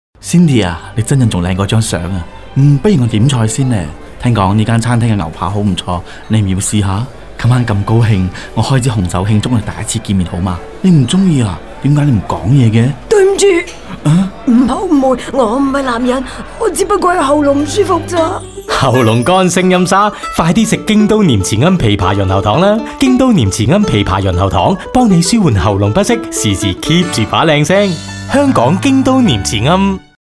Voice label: Cantonese Restaurant Music; Nin Jiom Herbal Candy